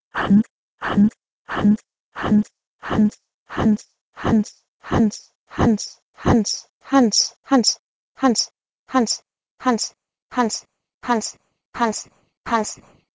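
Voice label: Proto-Indo-European h2nk to Armenian hasnel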